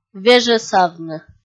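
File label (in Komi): "вежӧсавны", Komi, female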